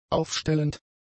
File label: "aufstellend", German; adjectives